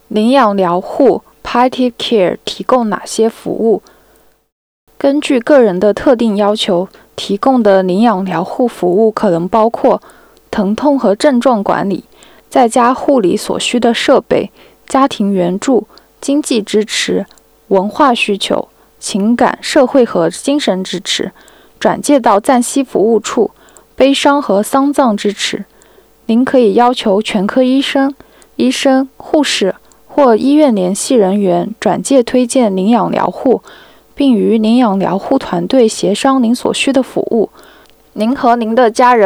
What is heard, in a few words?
Mandarin; Palliative Care; Which kind of services are available